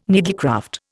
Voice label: "needlecraft", Indian

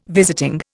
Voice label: "visiting", Indian

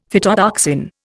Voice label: "phytotoxin", Indian; Dictionary

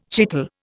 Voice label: "chital", Indian

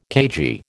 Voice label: "cagey", American

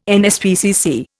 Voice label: "NSPCC", Indian

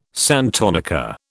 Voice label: "santonica", British

